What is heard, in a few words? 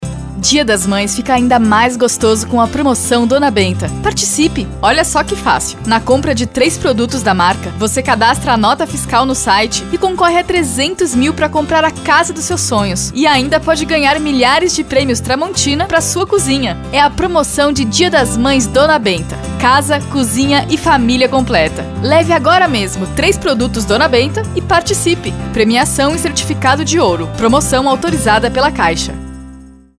Spot; DBenta